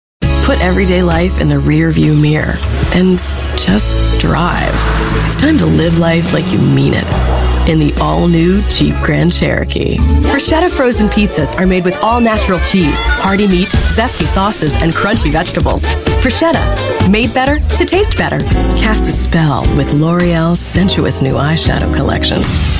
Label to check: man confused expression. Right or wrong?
Wrong